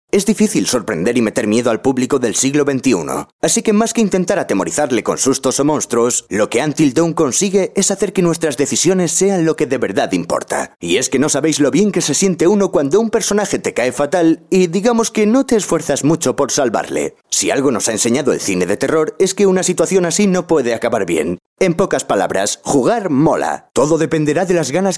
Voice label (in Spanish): Masculino